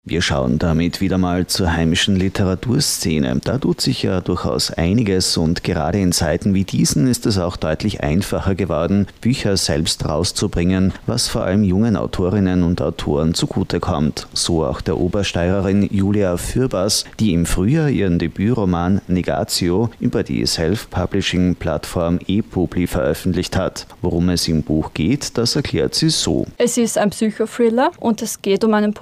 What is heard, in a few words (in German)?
Interview Lesung